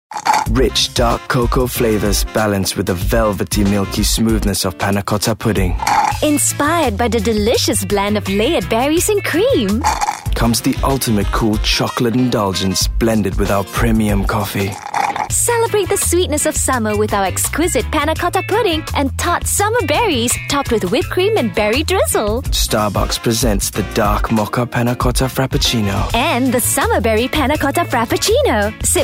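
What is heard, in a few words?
VO ENGLISH; STARBUCKS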